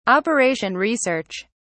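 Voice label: "operation research", British English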